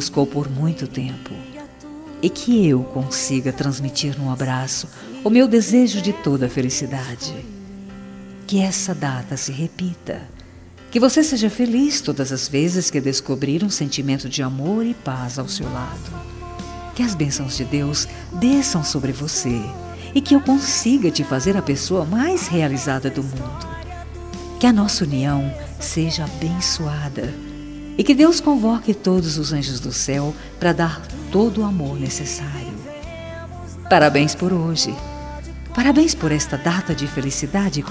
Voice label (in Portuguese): ANIV ROM EVANG RELIG FEM, telemensagem evangelica aniversario romantico